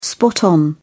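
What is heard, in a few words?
"spot on", British English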